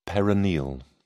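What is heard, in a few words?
"peroneal", British English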